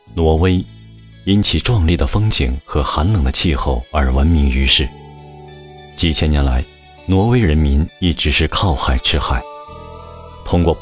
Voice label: chinese mandarin documentaries